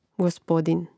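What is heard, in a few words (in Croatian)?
"gospodin", hrvatski; mreznik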